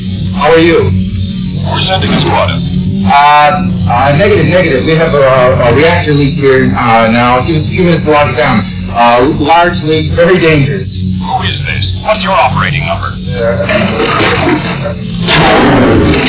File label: boring conversation